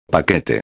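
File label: "paquete", Spanish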